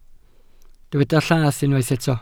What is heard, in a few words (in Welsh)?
"llath", Welsh